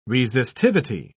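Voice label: "resistivity", British English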